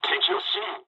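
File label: Japanese enemy detected mood low; War Thunder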